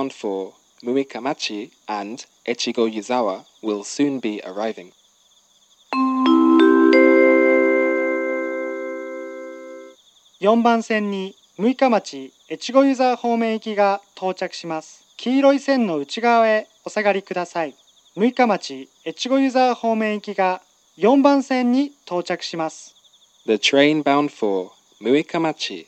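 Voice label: platform 3 approaching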